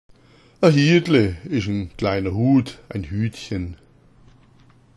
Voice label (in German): "hiatle", schwaebisch; A‘ Hiatle ist ein kleiner Hut, ein Hütchen.